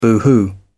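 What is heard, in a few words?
"boohoo", British English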